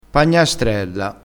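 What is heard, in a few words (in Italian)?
"pagnastrella", dialettale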